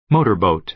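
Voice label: "motorboat", American English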